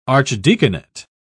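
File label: "archdeaconate", British English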